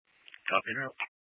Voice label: Mesa PD Dispatch; 1 Fiesta Dispatch